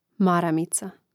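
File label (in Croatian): "maramica", Croatian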